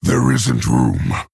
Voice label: Male Orc; Thrall